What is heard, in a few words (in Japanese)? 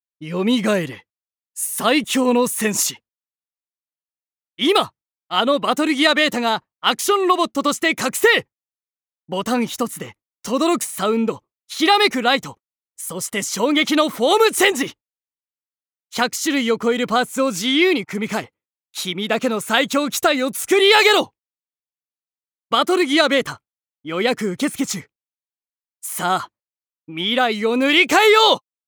ナレーション